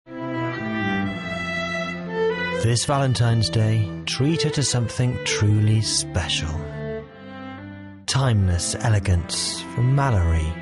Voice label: romantic, voiceover